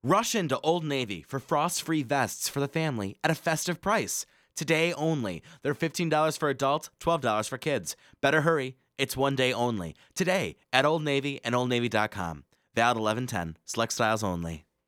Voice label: voiceover raw